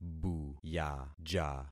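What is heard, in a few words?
"buyaja", concatenated syllables; Affixing experiment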